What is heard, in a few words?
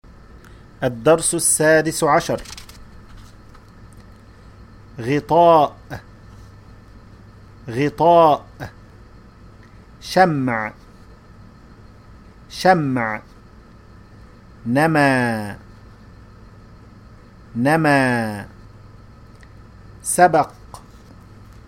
Arabic exercise